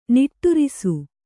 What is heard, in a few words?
"ನಿಟ್ಟೇರಿಸು", Kannada